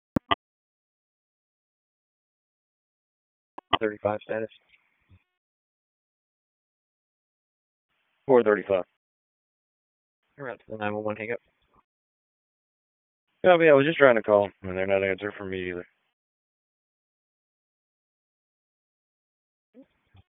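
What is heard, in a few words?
Police Southeast District Dispatch; Raleigh; wake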